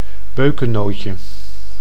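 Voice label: "beukennootje", Dutch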